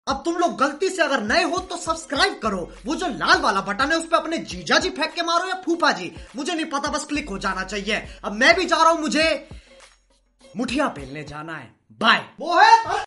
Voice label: Funny Dialogue Hindi; Carryminati